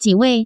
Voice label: "jiwei", Chinese, Taiwan, restaurant; diancan